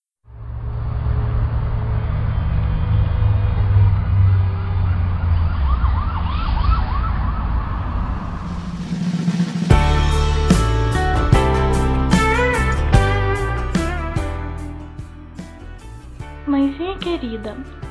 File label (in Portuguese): Mãe infantil f, Telemensagens, Dia das Mães; Telemensagens 2017